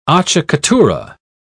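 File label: "acciaccatura", British English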